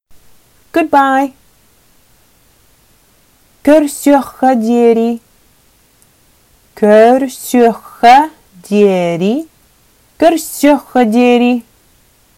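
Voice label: Sakha Goodbye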